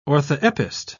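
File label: "orthoepist", British English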